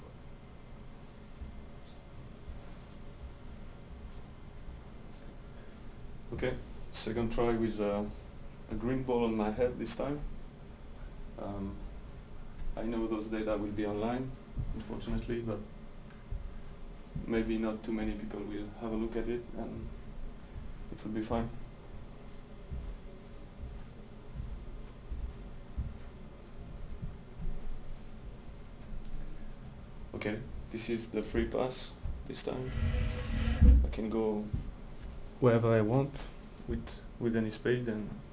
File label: array2 mic6; av16.3